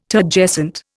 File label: "Turgescent", Indian